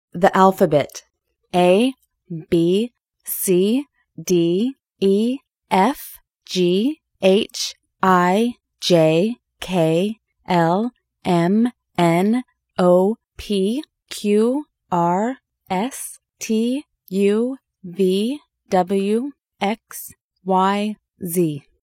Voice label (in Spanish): pronunciacion abecedario ingles